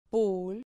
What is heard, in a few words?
"pul", Spanish